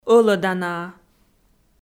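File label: "ӧлӧданаа", Komi, female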